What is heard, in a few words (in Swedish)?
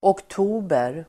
"oktober", Swedish